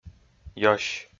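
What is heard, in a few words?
"ёсь", Komi, male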